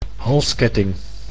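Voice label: "halsketting", Dutch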